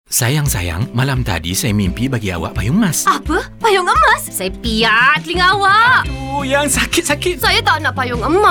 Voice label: COMMERCIAL JEWELS; JUMEIRAH